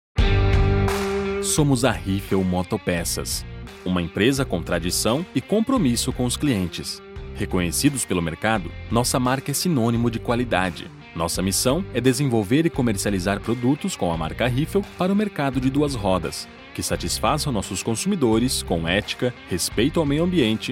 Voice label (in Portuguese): Atendimento Telefonico; Riffel